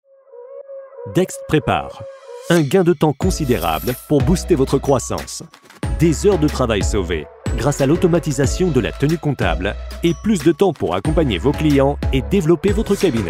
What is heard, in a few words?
commercial; dext